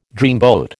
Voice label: "dreamboat", American